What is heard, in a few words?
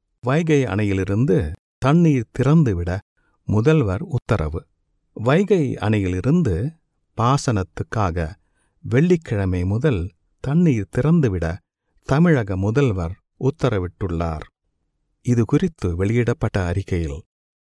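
Tamil, Sri Lanka, male; tamil voice over talent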